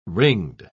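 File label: "ringed", British English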